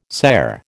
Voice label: "cere", American